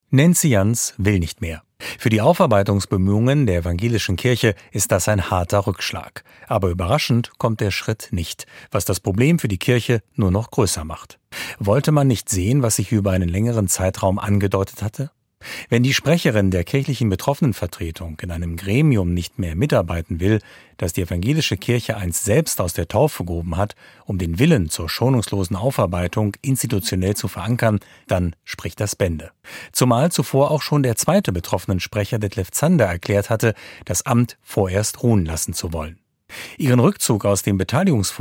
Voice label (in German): kommentar; betroffenensprecherin tritt zurueck